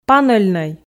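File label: "панельнӧй", Komi, female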